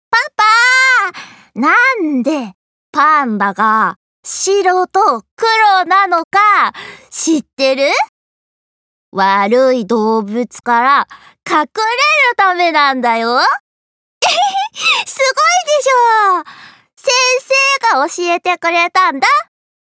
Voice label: 小学校低学年子役

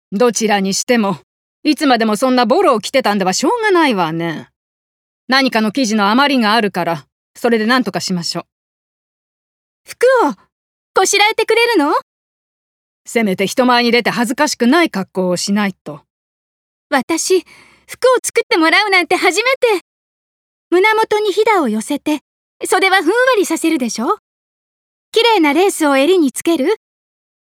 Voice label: 厳しい叔母と少女

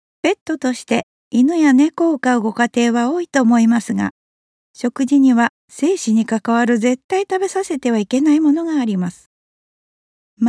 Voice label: 生活情報告知

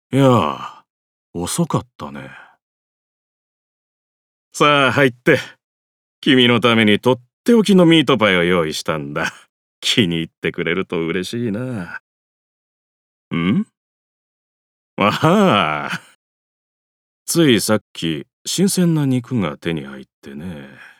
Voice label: サイコパス50代

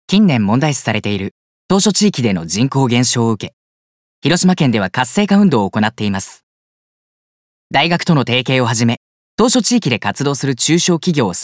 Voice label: 広報ナレ

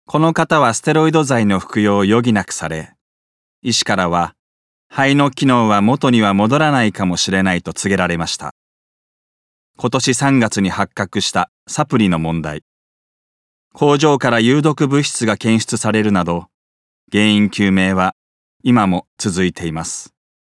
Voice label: 報道番組ナレーション

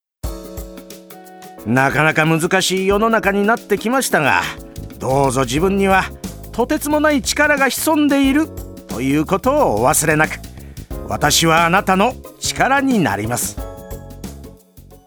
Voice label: 語りかけ風ナレ

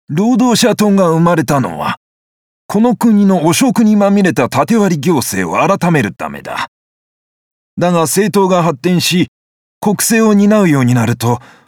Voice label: 老人、憂い

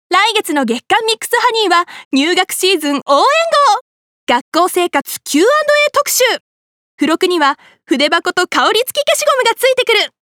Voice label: CMアップテンポ

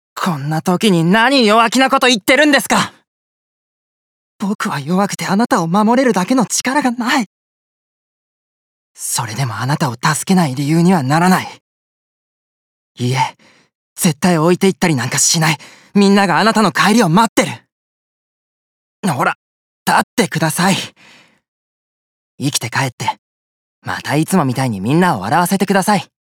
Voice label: 戦士20代

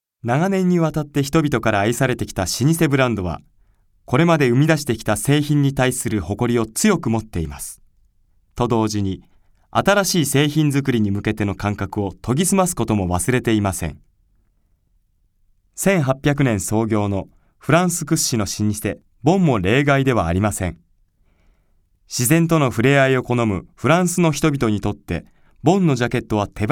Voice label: 製品紹介

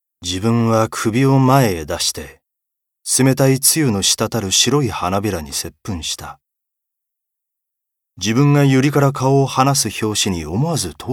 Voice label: 朗読

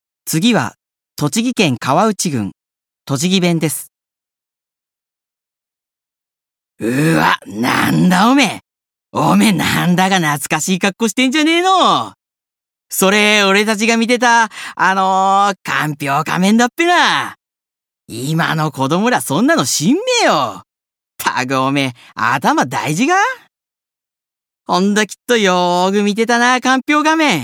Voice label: 方言

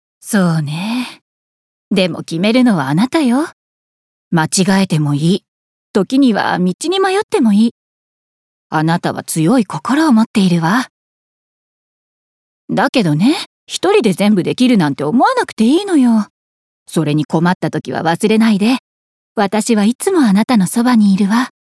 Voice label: キャリアウーマン, 播州弁・摂津弁; 後輩思い

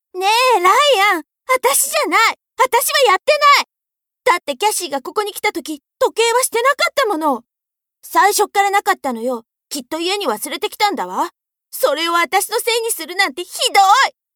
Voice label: 大学生

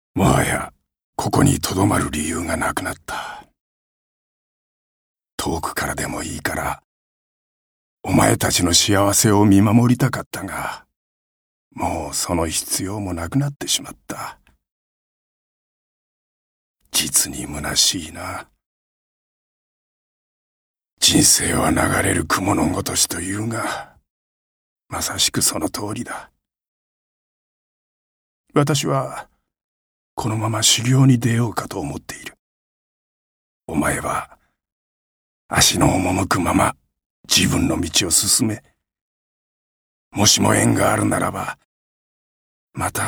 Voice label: 旅人親子

